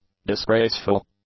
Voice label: "disgraceful", English